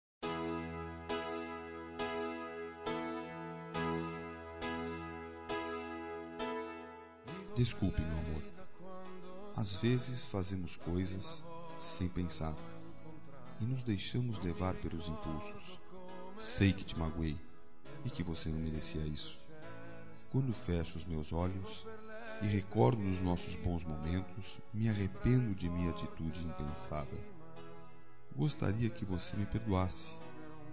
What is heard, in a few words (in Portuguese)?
ROM DESCULPA MASC, Telemensagens; Desculpas; Telemensagens 2017